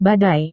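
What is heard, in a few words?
"badai", Indonesian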